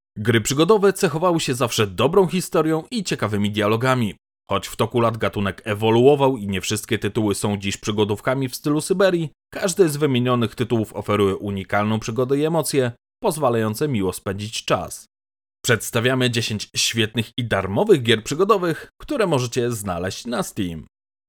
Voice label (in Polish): narracja